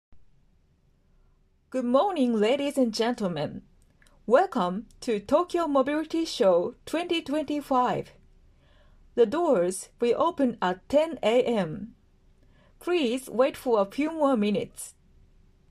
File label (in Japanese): 英語, ナレーター